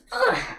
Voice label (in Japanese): ダメージボイス; ポーズリクエストに応える回